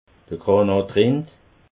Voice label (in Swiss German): "granatrind", alsace